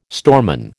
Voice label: "storeman", American